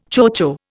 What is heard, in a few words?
"chocho", Indian